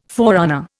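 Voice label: "forerunner", Indian English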